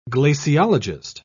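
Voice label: "glaciologist", English, British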